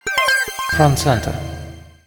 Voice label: audio channel front center